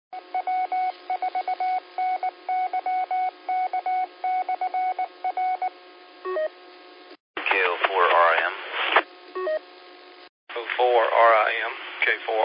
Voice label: qso rec simplex logic